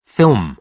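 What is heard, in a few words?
"film", British English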